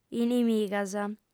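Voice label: "inimigas", female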